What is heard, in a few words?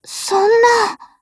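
LOW MOOD, Japanese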